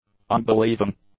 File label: "unbelieving", English; adjectives